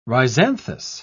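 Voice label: "rhizanthous", British English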